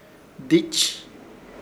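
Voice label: "Dich", Chamacoco word, grande; Ishir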